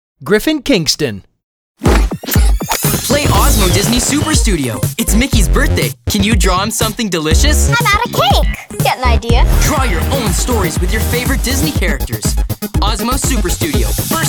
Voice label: kids commercial